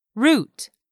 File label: "ROUTE", english; 3000 words